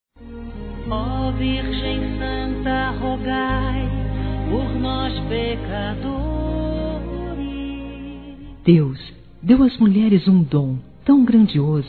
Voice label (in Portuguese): MÃE RELIGIOSA F; Telemensagens 2017